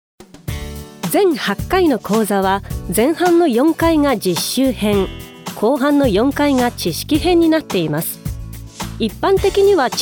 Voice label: female Japanese corporate